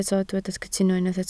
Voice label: "cytuno", Welsh

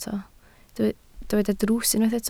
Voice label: "drws", Welsh

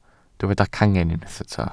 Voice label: "cangen", Welsh

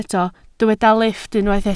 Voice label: "lifft", Welsh

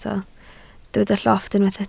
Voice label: "llofft", Welsh

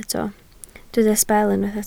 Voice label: "sbel", Welsh

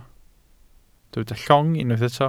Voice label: "llong", welsh

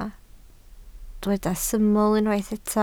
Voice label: "syml", Welsh

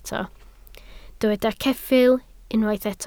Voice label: "ceffyl", Welsh

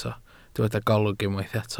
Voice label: "golwg", Welsh

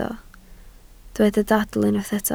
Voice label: "dadl", Welsh

